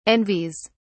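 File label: "envies", English, British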